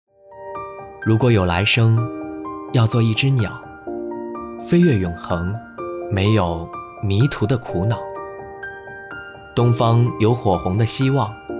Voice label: 情感类

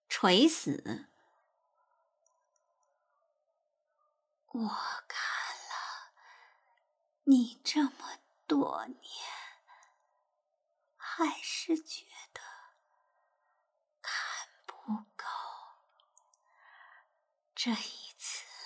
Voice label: 垂死

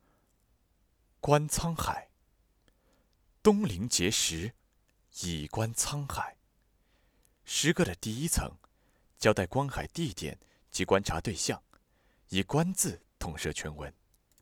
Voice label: 观沧海, 男声纪录片配音 低音浑厚音色偏年轻一点

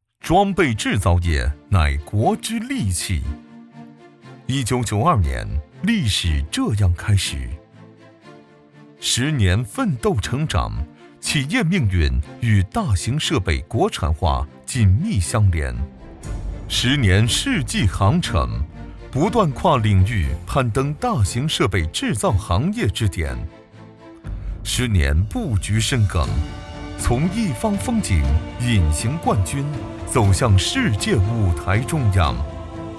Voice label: 大气宣传新宏大

